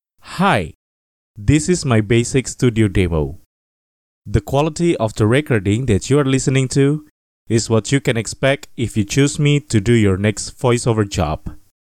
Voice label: Voiceover English, Deep & Wholesome